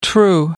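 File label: "the true", British English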